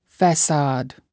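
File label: "facade", English word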